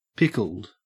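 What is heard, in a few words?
"pickled", Australian English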